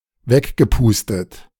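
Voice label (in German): "weggepustet", German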